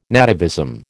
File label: "nativism", American